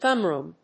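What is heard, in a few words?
"gunroom", English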